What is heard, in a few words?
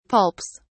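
"pulps", British English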